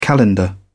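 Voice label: "calendar", English, British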